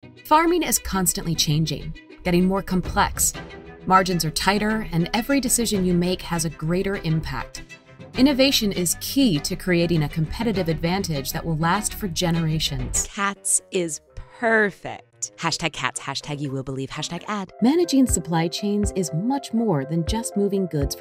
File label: English; voiceover